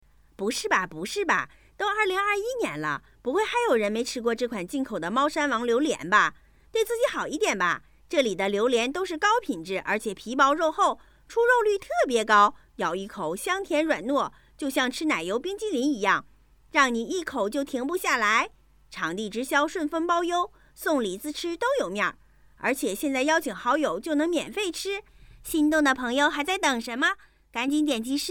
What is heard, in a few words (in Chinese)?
电商广告